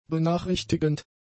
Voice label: "benachrichtigend", German; adjectives

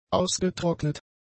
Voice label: "ausgetrocknet", German; adjectives

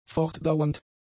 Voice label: "fortdauernd", German; adjectives

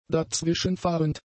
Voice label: "dazwischenfahrend", German; adjectives

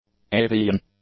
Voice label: "avian", English; adjectives

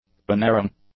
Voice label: "unerring", english; adjectives

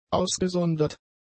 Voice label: "ausgesondert", German; adjectives

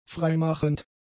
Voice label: "freimachend", German; adjectives

